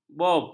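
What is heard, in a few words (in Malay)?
"bom", Malay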